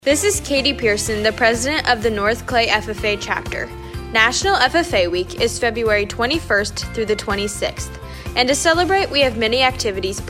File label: female; north clay; ffa week 2021